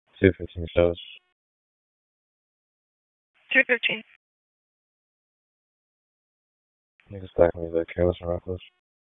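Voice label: Raleigh Police Northeast District Dispatch, p25; wake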